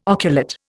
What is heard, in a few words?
"oculate", Indian